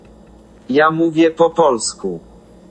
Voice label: I speak Polish

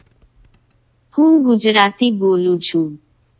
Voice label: Gujarati

